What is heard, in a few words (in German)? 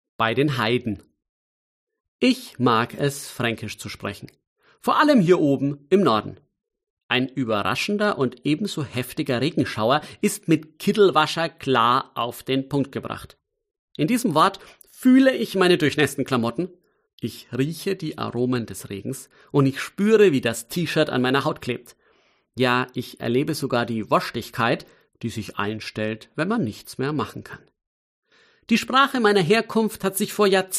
Mundart